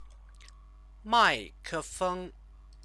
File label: "maikefeng", Chinese